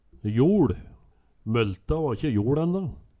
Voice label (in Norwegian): joL, Numedalsmål